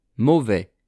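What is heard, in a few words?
"mauvais", French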